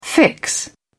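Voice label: "fix", British English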